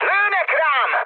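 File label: Hungarian aircraft damaged mood high, speech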